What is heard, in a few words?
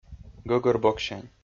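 "гӧгӧрбоксянь", Komi, male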